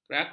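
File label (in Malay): "kerakal", Malay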